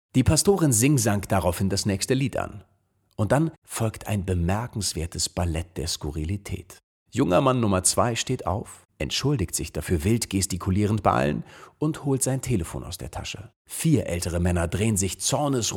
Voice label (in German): Hörbuch AUSZUG; LOFT VOICES